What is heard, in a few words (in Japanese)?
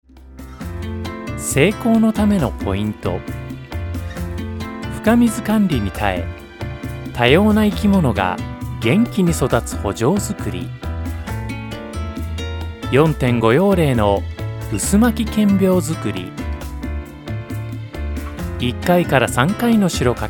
ボイスサンプル 農業ナレ